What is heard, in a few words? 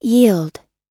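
"yield", English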